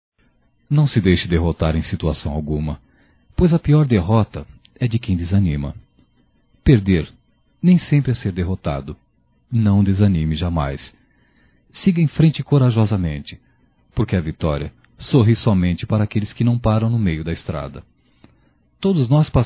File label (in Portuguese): Otimismo Masculino, Só Voz; Telemensagens; Telemensagens 2017